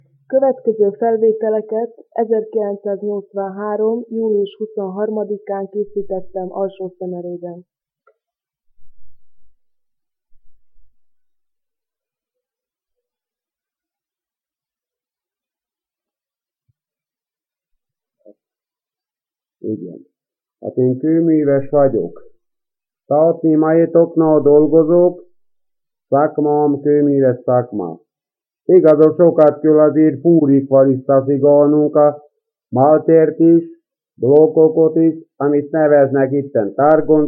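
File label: dialect collection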